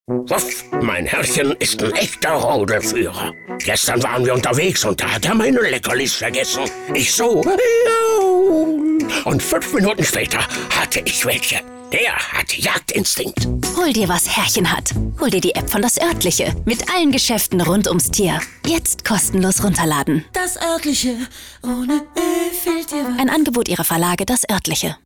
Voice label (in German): Werbung